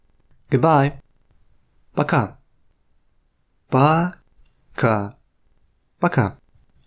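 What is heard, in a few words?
Russian Good bye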